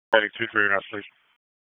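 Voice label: EMS Dispatch; wake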